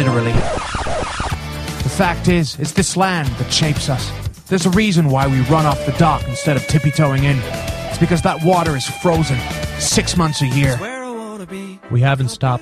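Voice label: French Canadian English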